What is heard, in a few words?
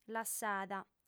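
"lassada", female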